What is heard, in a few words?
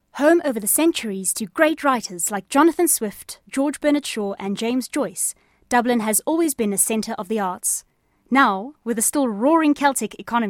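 Afrikaans, accented English; GoLocalise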